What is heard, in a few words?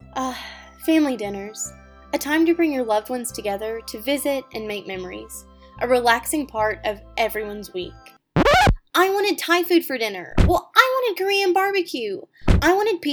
Radio Ad